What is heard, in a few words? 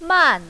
"man4", mandarin, female